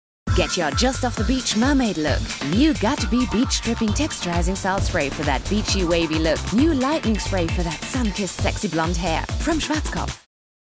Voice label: ENG TVC